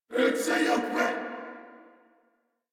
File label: Player Spotted Corpus Venus Male, en